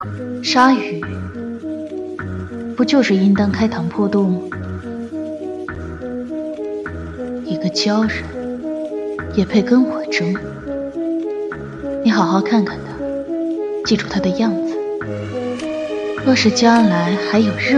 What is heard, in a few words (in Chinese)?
反派御姐